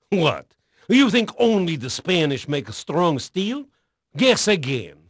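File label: Portuguese male, voice; Pirates Of The Caribbean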